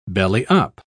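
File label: "belly-up", British English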